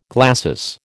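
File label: "glacis", American English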